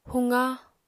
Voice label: "huqa", Apatani